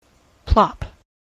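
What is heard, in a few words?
"plop", US English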